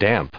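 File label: "damp", US English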